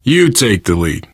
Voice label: lead on, survivor voice

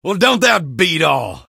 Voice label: positive vocalization; engineer; source engine; tf

